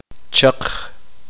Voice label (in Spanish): uspanteko seco